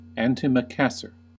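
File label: "antimacassar", American English; pronunciations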